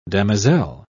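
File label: "damoiselle", British English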